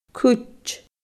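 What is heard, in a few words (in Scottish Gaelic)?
"cuid", Scottish Gaelic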